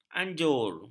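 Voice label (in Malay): "anjur", Malay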